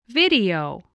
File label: "video", English